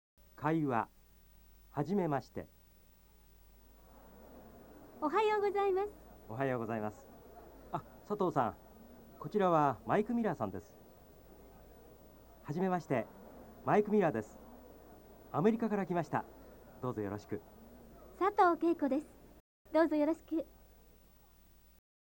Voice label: nihongo dialog, jap